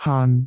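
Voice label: "haan4", Cantonese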